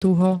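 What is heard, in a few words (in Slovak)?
"tuho", Slovenské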